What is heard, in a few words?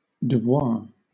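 "devoir", British English; pronunciations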